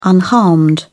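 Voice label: "unharmed", British English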